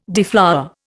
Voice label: "deflower", Indian

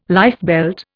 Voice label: "lifebelt", Indian

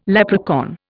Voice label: "leprechaun", Indian

